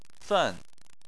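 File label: "fen" fourth tone, Chinese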